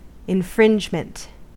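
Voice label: "infringement", US English; pronunciations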